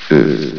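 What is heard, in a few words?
"fifth", male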